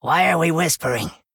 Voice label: Male Gnome Mirror Greetings

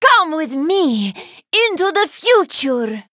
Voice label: Female Draenei Play

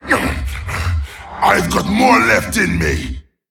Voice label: Male Tol'vir Play

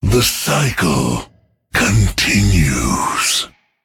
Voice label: Male Drust Emote Concede

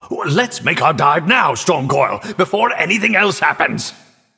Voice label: Male Nightborne

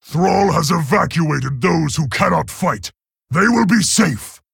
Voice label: Male Orc; Garrosh

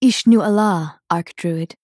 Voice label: Female Dryad

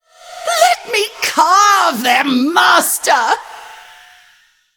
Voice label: Female Sentient Weapon Play

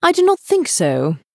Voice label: Female Night Elf Error Play

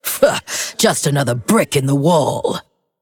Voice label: Female Undead

